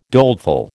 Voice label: "doleful", American